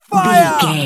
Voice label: voice male soldier fire